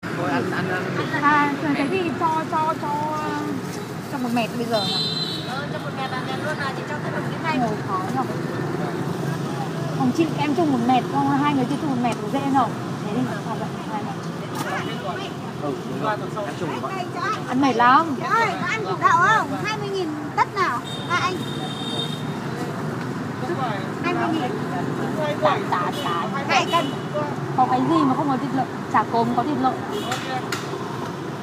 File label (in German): Unterhaltung am Tofu Stand